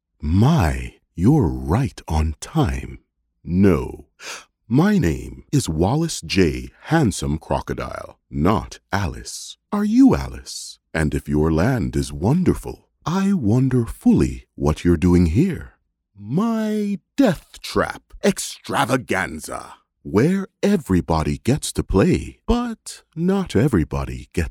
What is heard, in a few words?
english canadian animation